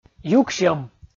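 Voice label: "юксьӧм", Komi, male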